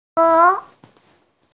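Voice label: "zhe2", Mandarin Chinese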